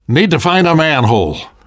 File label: world hospital, namvet, survivor voice